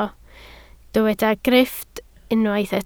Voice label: "grifft", Welsh

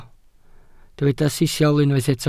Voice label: "sisial", welsh

